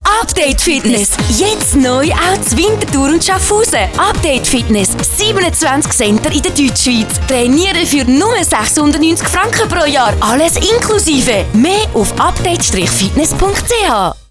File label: female, Swiss German fitness commercial